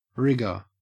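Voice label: "rigour", Australian English; pronunciations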